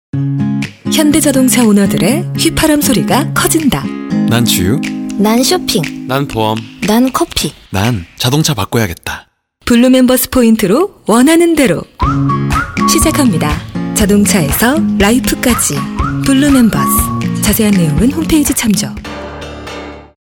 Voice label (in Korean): 기분좋은 30대; 현대자동차; 블루멤버스